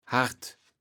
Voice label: "Hart", Platt

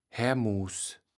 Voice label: "Herrmuss", Platt

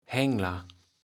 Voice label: "Hängler", Platt

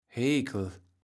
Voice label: "Heekel", Platt

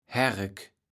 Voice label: "Haerek", Platt

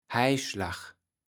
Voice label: "Heijschlag", Platt

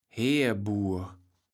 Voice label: "Heerbuur", Platt

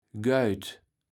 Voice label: "Geut", Platt

